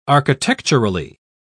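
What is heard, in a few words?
"architecturally", English, British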